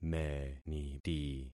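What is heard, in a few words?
"meniti", concatenated syllables; Affixing experiment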